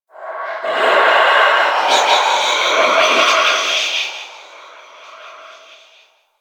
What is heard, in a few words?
Attack Vocal; Warframe; Stalker Acolyte